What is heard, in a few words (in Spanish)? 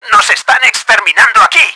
taking beating, Spanish; Battle Engine Aquila; XBOX